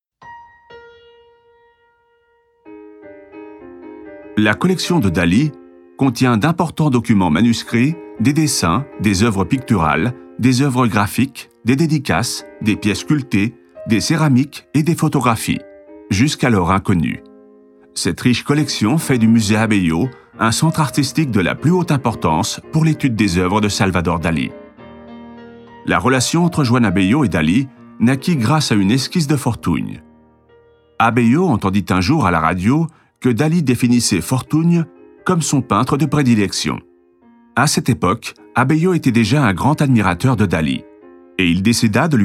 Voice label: audioguia french